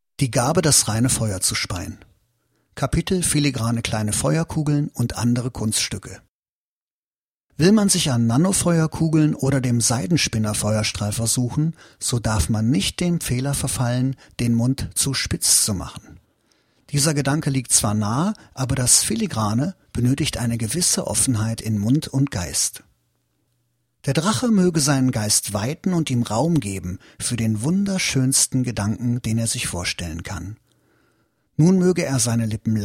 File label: eLearning